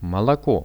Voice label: "moloko", Russian; молоко; napitki; RUSSIAN VISUAL DICTIONARY